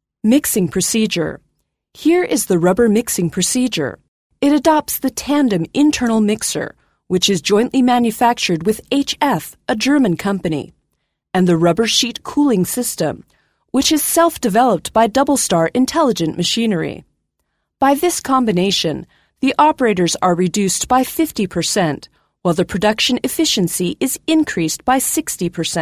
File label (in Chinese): 美式女